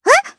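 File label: voice, Japanese; Hanus; kingsraid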